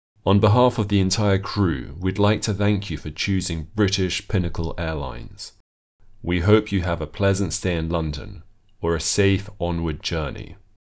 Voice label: arrival announcements, received pronunciation

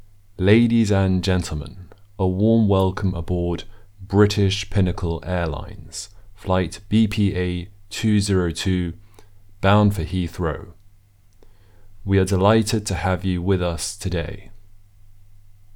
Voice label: Welcome Onboard, received pronunciation

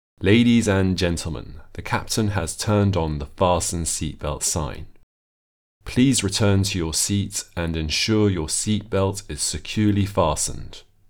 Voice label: fasten seatbelt, received pronunciation

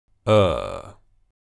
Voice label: long vowel sound ɜː, received pronunciation

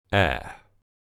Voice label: short vowel sound ɛ, receivedpronunciation

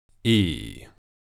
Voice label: long vowel sound iː, receivedpronunciation

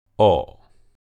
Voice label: short vowel sound ɒ, receivedpronunciation

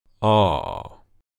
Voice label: long vowel sound aː, received pronunciation

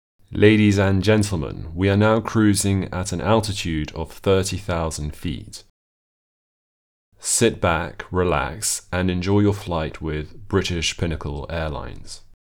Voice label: mid flight announcement, receivedpronunciation

